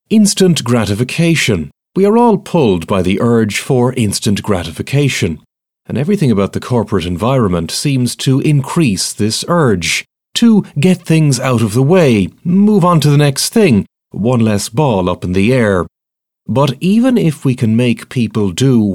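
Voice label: audio books, English; England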